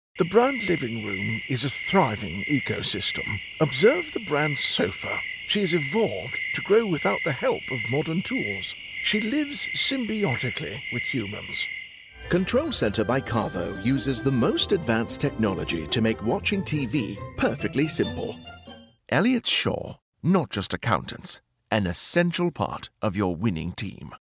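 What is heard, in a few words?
British Various, Male Voice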